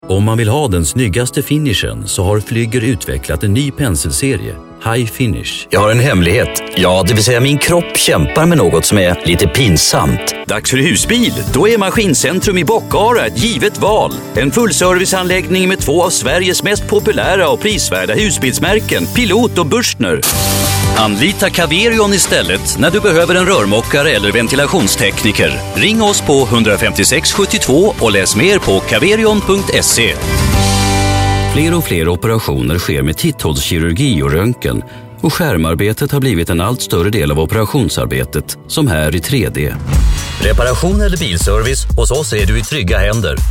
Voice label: swedish commercial